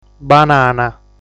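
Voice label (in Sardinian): "Banana", Sardinian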